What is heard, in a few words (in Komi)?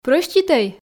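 "прӧститӧй", Komi, female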